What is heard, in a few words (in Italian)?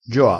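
"gioa", vocabolario dialettale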